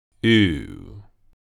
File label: long vowel sound uː, received pronunciation